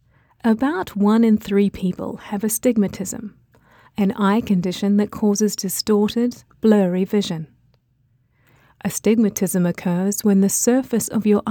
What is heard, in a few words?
Medical Explainer